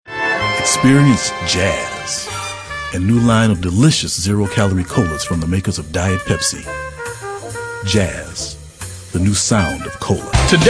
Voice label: male, mid30s mid40s warm